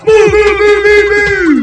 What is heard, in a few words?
move, radio, male